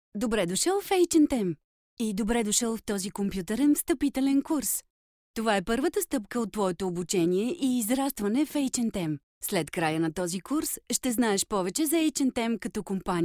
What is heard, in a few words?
bulgarian f e-learning, voice; voice demos